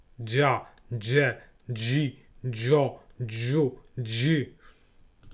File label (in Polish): "dź", fonetyka